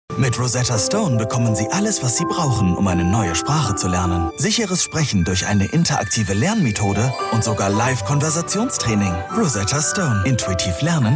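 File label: german male commercial; voice demos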